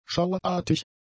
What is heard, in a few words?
"schauerartig", german; adjectives